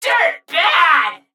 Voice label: Nonbinary Mech Attack